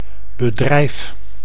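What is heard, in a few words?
"bedrijf", Dutch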